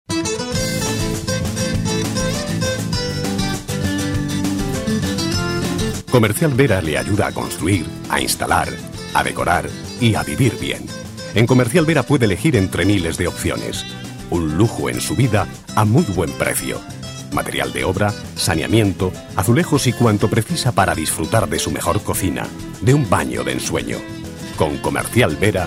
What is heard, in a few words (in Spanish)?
publicidad